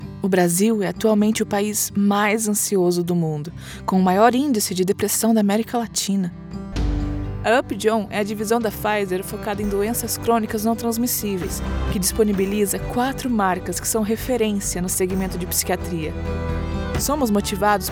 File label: medical pharma for doctors warm caring thankful female Brazilian; PFIZER; Portuguese